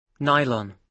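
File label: "nylon", British English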